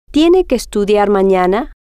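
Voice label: tiene Que Estudiar Manana, Spanish, female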